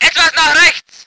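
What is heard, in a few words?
voice german vehicle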